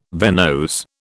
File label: "venose", British